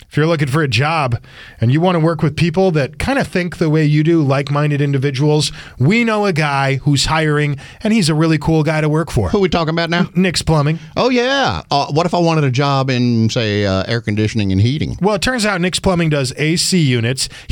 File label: RADIO AD; nicks plumbing radio ads